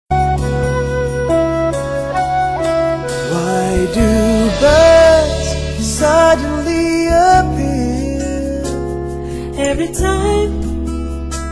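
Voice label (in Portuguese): dia dos namorados feminino, telemensagem; telemensagem dia dos namorados geral feminina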